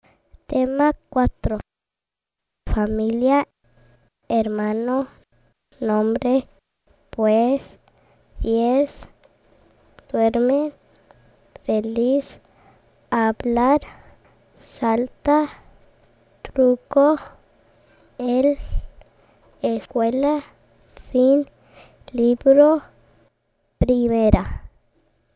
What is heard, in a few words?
High Frequency Spanish